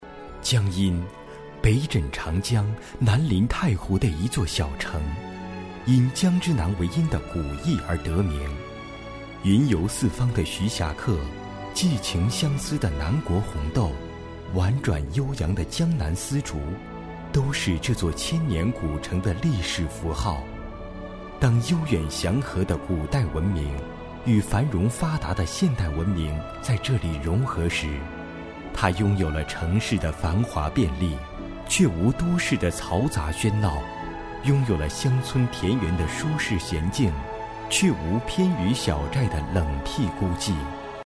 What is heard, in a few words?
boy